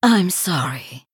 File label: Female Sanlayn Emote Sorry